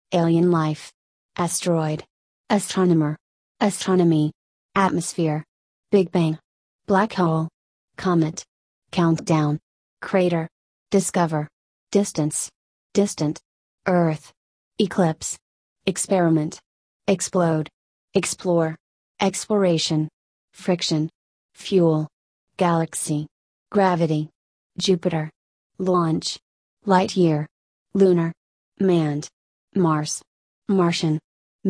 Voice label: usa